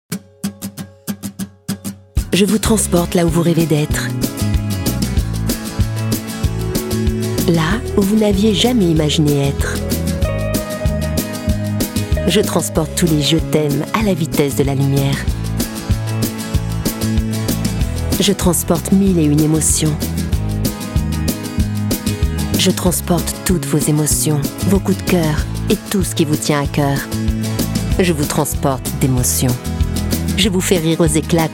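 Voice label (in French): chaleureuse complice, voix off; Convention Orange; Films Institutionnels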